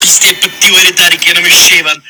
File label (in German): tiroler schimpfen